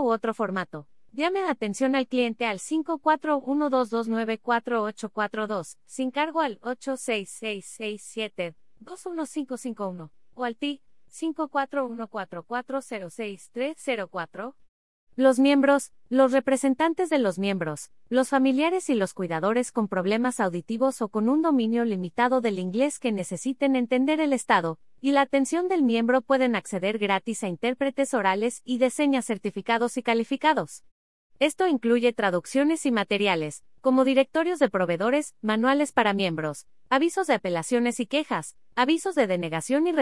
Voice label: spanish